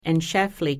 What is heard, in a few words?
"en Schaefli", Pennsylvania Dutch; a in fat